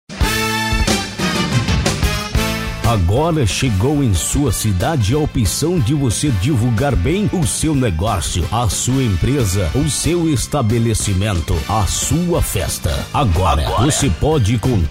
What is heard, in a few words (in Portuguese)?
spot; araujo studios